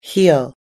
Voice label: "heel", us english